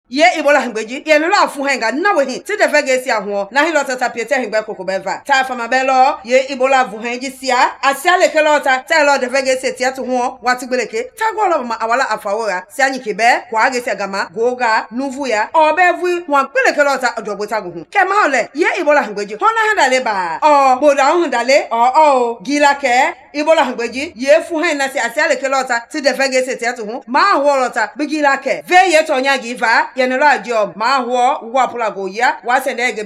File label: Mende; ebola; radio-spots